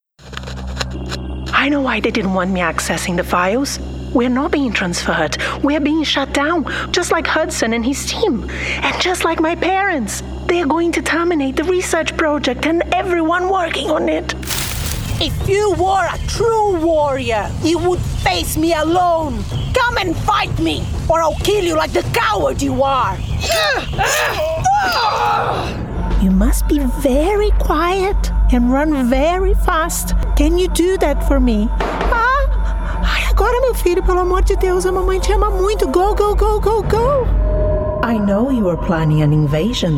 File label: Games International English